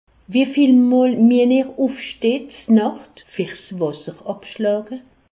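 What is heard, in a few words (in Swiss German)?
"nacht", Alsace, Haut Rhin; medecine; vous vous levez combien de fois la nuit pour uriner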